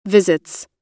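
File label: "visits", American English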